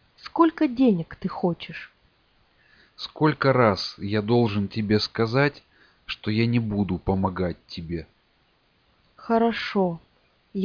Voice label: Russian simple story